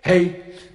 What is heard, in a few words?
"hey", Voice